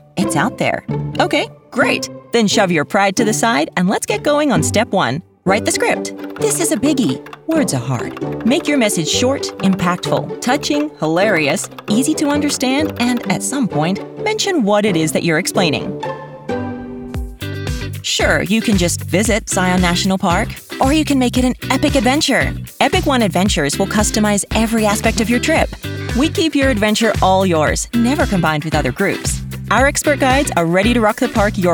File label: Explainer